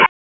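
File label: Police Southwest District Tac, radio; Raleigh; Tac 1; p25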